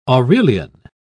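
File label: "aurelian", British English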